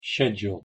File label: "schedule", pronunciation en